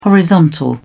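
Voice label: "horizontal", British English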